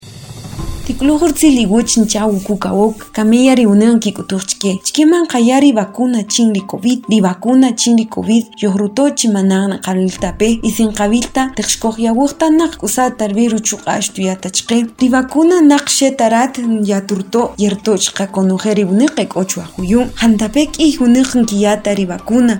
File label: SPOT KAQCHIKEL